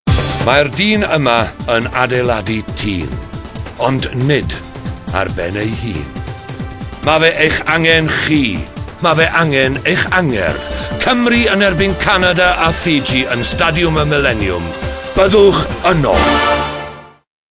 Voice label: Commercial Welsh; Wales vs Fiji canada